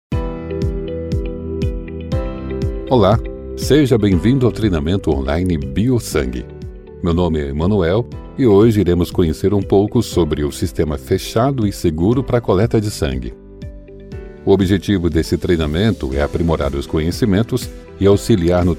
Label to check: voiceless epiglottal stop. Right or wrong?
Wrong